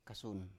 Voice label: "kasun" small, little, Biak

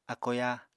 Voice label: "ákoyá", Apatani

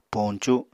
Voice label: "poñcu", Apatani

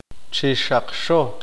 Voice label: "estar de pie", Q'eqchi'

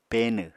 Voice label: "peenw", Apatani

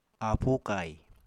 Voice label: "aapu kai", Apatani

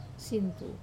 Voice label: "sinitu" person, Wamesa

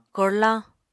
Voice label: "korlañ", Apatani

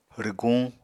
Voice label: "rwguñ", apatani

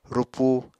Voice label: "rupu", Apatani; Material Culture

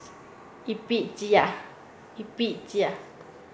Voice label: Nasa Yuwe; venir acompanado a otro; paez

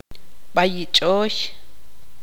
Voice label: tzutujil; rata

